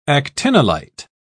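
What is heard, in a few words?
"actinolite", British English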